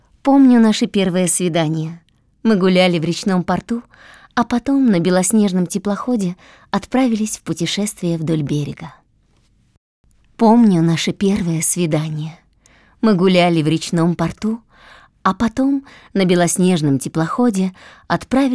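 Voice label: soulfully, voiceover video; профессиональных дикторов для озвучивания видео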